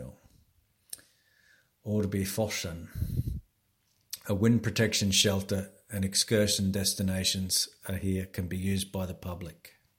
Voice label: English voice; Orrbyforsen